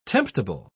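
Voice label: "temptable", British English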